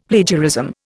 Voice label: "Plagiarism", Indian; Dictionary

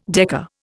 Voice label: "decker", Indian

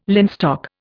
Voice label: "linstock", Indian

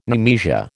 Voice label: "nemesia", American

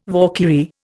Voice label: "Valkyrie", Indian

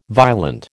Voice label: "violent", American

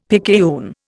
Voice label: "picayune", Indian; Dictionary

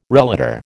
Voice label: "relator", American

